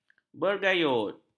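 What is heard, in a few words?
"bergayut", Malay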